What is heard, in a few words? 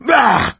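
boomer reaction, voice, male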